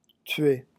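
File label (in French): "tuer", French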